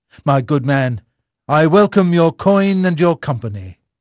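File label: English male; Pirates Of The Caribbean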